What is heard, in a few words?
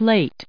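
"late", US English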